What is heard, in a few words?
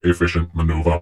cheavy good shot; Dystopia; vox new